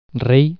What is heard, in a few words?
king, Catalan